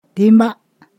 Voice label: "so that", Ponosakan

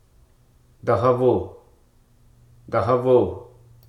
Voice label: "impede", Hruso

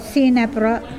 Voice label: "shoulder blade", Mokilese

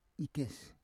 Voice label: "ikes", Roon

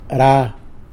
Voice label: "ra", tlacolula

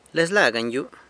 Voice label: "Lezla ganyu", San Lucas Quiaviní Zapotec; Wait

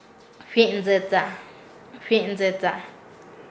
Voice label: Nasa Yuwe; hacerse tarde; paez

